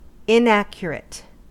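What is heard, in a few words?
"inaccurate", US English; pronunciations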